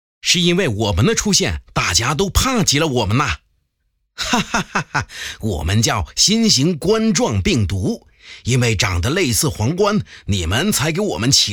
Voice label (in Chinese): 角色病毒凶但不失童趣